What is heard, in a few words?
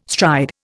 "stride", Indian